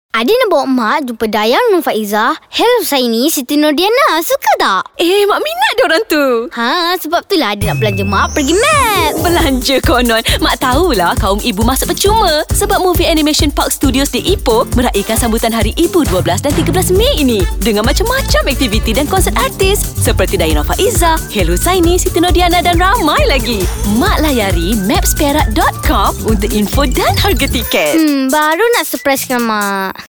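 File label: Malay; MOVIE ANIMATION PARK STUDIOS; MAPS; MOTHERS DAY CAMPAIGN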